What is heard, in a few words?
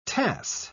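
"tass", British English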